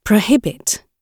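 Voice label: "prohibit", British English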